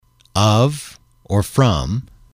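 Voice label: "of from", English, male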